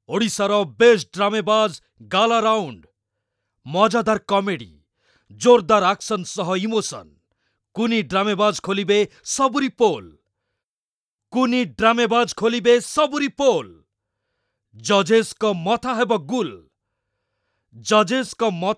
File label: promo oriya